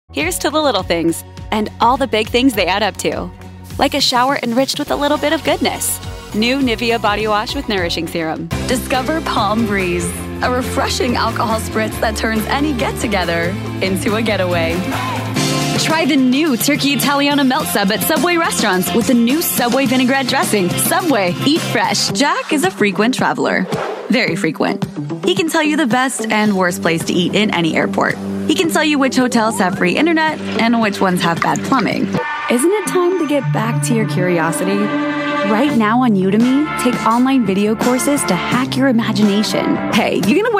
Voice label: Commercial, en-NZ; Commercial Demo